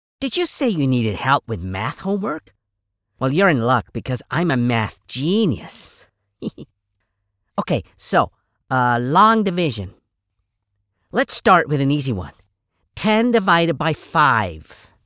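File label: general American toy voice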